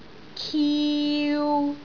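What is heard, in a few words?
"kiu3", Cantonese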